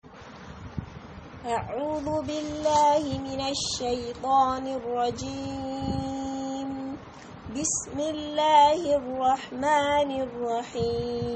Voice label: My Recitation